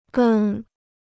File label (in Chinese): "跟", Chinese